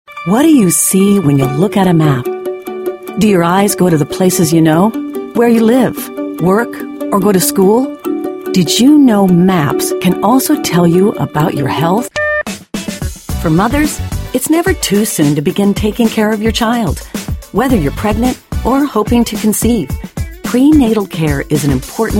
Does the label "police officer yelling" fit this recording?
No